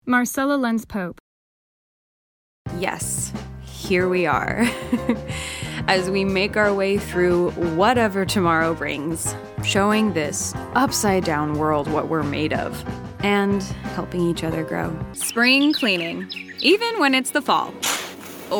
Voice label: commercial; Innovative LA